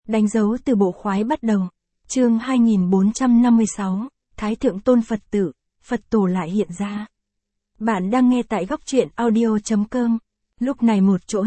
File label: vi-VN Standard; danh dau tu bo khoai bat dau